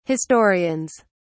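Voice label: "historians", British English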